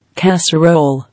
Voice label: "Casserole", English